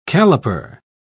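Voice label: "caliper", British English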